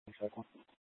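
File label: Incident Tactical 1, Scanner audio recording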